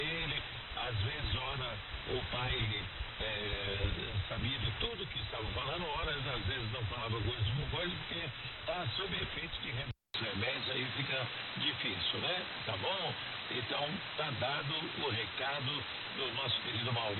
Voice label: 11780khz; RN da Amazonia; SDRplay RSP1B

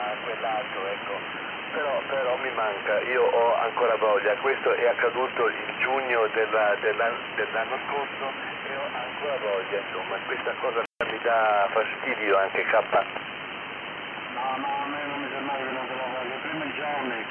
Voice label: 6575khz Piraten LSB

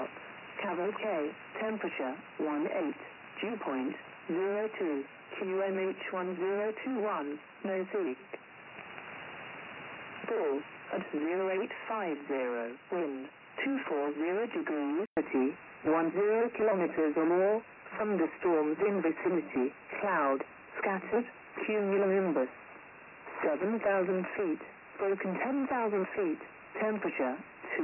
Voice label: USB RAF Volmet; 11253khz; G33DDC; Afedri

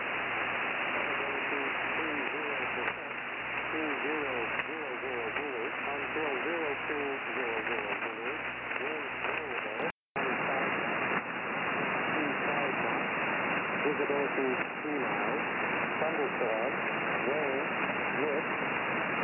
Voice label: 10051khz USB Radio; HF1000; R9500; Gander

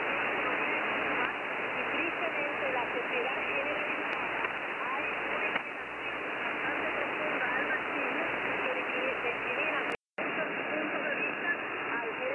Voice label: 13605khz USB; HF1000; R9500; Radio Marti

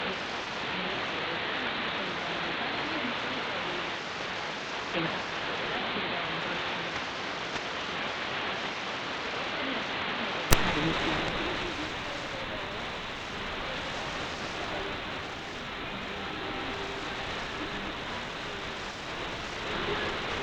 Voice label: Radio AM; Radio Farda; 17630khz

